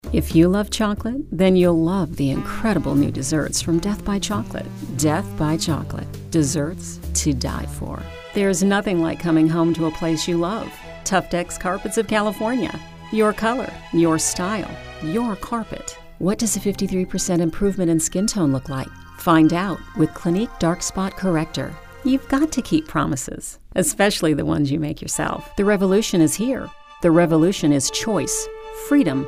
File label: Commercial